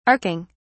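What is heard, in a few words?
"arcing", English, British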